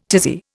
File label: "tizzy", Indian